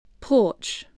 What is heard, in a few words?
"porch", voice spelling